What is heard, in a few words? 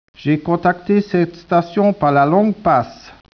speech, French